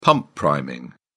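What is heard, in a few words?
"pump priming", British English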